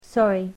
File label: "Sorry", English